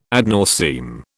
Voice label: "ad nauseam", British

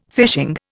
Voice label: "fishing", Indian

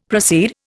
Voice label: "proceed", Indian; Dictionary

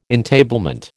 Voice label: "entablement", American

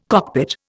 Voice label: "cockpit", Indian

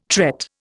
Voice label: "tret", Indian

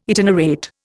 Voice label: "itinerate", Indian

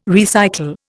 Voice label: "recycle", Indian

